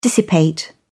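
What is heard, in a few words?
"dissipate", British English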